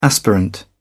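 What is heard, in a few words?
"aspirant", English, British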